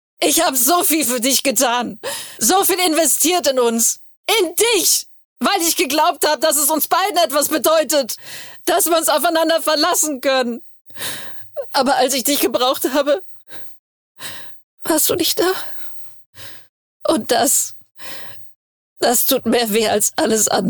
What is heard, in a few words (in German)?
Emotionaler Monolog